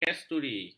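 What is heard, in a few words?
"kesturi", Malay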